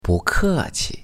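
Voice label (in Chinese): "不客气" you're welcome, Chinese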